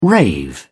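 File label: "rave", British English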